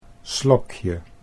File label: "slokje", Dutch